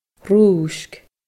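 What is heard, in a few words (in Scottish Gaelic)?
"ruisg", Scottish Gaelic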